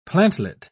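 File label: "plantlet", British English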